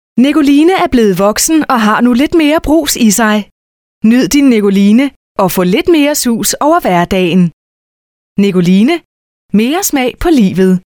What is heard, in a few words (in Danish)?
Reklame